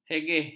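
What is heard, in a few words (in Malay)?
"hegeh", Malay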